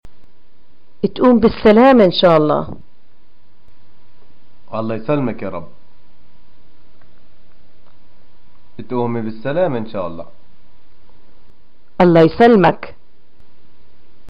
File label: greeting get well, arabic